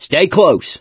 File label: stay together, mechanic; source engine; left 4 dead 2